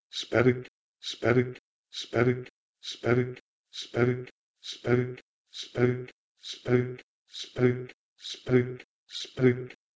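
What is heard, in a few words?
Proto-Indo-European "spergh" to "sprgh"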